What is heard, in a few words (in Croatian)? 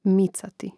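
"micati", Croatian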